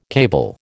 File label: "cable", American